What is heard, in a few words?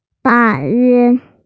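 "date", Mandarin; da4yue1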